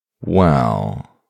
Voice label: "well", man; voice ringtones

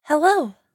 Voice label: "hello", girl voice; ringtone; standard ringtones; another hello

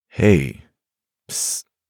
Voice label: man hey psst, manly notification; voice-ringtones

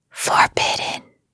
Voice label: asmr girl forbidden; asmr ringtones

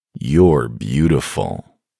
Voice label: man youre beautiful, man voice; voice-ringtones

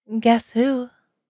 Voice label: guess who, girl voice; voice-ringtones

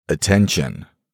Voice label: man attention, deep voice; voice-ringtones

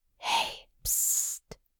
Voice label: asmr girl hey pssst; ringtone; asmr ringtones

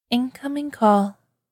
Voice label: incoming call, girl voice ringtone; voice ringtones

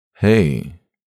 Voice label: man hey; voice-ringtones

